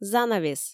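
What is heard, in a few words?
"занавес", Komi, female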